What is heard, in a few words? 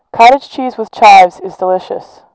Voice lpc